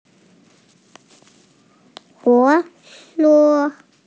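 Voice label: "huo3 che1", Mandarin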